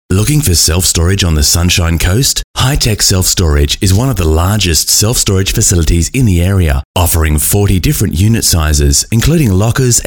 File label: voiceover; Brisbane Voiceover Recording